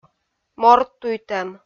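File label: "морттуйттӧм" Komi, female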